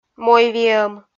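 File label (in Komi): "мойвиӧм", Komi, female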